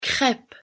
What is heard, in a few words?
"crêpe", pronunciation fr